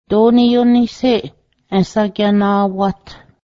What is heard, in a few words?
how long will it take you, Cayuga